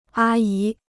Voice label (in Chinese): "阿姨", Chinese